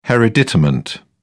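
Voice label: "hereditament", British English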